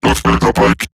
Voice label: Cover Me Robot Large; Dialog; Barks; Battle; en; Eximus Corpus; Corpus Robotic Large